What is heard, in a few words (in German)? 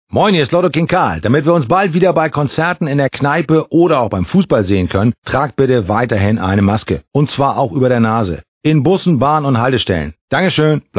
HVV Durchsage; Lotto; hamburger promis erinnern an die maskenpflicht